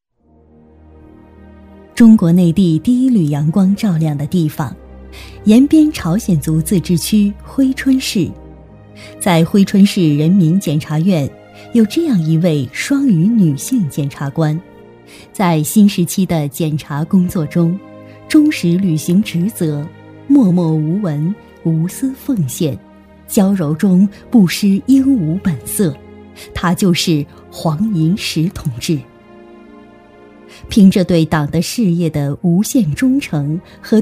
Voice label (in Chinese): 女, 人物专题, 人物讲解旁白 舒缓 抒情; 知性稳重; 温和抒情